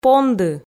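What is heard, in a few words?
"понды" Komi, female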